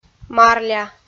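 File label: "марля", Komi, female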